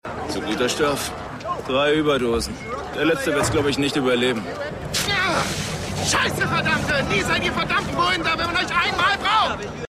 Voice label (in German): Truck Wuetender Dealer; Off Norm Thurston; The Wire